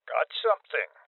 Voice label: Voices Loot Collect Male Old; rebel cops 2019 gamerip